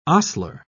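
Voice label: "ostler", British English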